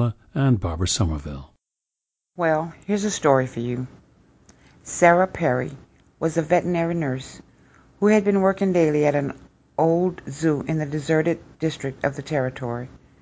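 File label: louisiana, International Dialects of English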